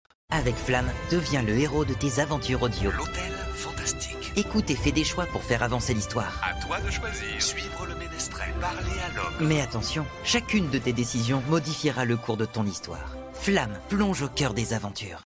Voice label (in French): publicite; Flam; Lunii